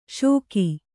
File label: "ಷೋಕಿ", kannada